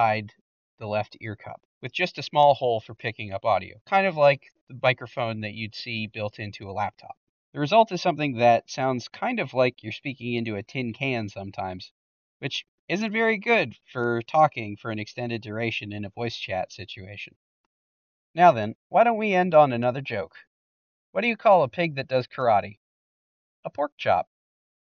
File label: Playstation Gold Wireless Headset Mic Sample